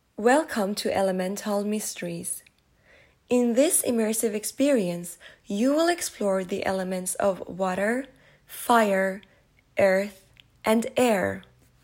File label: Intro Narration